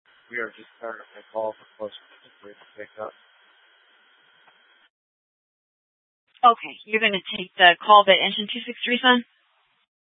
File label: Fire Departments, scanner